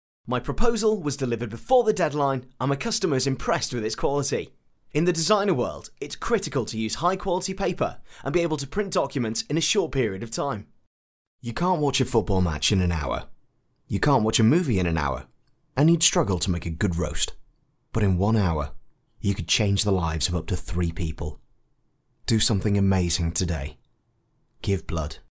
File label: male british voice over character, english british